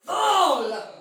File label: Attack Normal Soldier Female, Battle Barks; Dialog; Sinecure